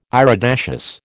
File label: "iridaceous", American